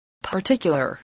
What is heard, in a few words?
"particular", English, voice